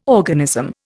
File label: "organism", Indian